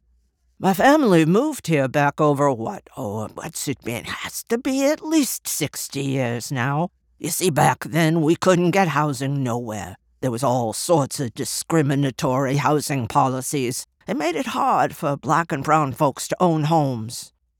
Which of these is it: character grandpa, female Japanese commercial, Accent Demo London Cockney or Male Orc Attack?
character grandpa